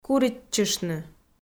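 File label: "куритчыштны", Komi, female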